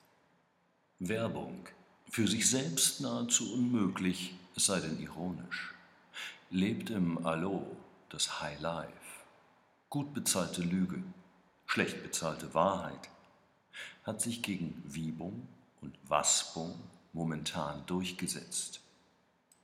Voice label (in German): button werbung